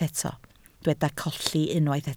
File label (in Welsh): "colli", Welsh